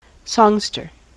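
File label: "songster", US English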